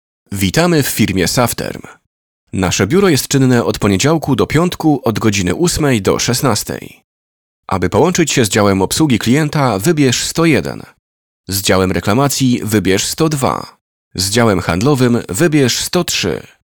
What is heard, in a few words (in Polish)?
zapowiedz